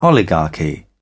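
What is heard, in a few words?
"oligarchy", British English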